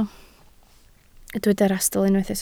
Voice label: "rhastl", Welsh